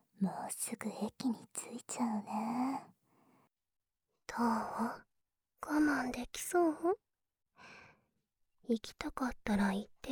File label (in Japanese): 効果音なし (耳舐め・ささやき・乳首責め・オナニー指示); ちゃんと我慢できたね♪